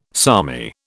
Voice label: "salmi", British

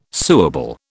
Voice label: "suable", British

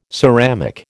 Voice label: "ceramic", American

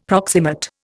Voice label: "proximate", Indian; Dictionary

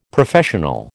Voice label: "Professional", American

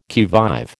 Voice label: "qui vive", American

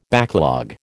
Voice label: "backlog", American

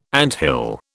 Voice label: "anthill", British

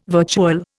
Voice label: "virtual", Indian

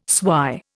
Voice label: "swy", Indian

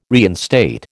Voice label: "reinstate", American

